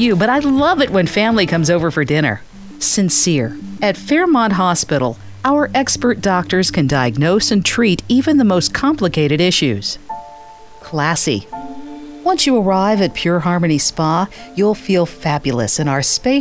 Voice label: female demo